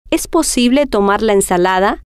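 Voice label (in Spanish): es Posible Tomar Ensalada, spanish, female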